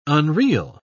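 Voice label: "unreel", British English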